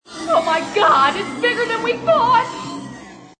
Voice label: My God Bigger, Female; Talking Sound Effects